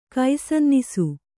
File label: "ಕೈಸನ್ನಿಸು", Kannada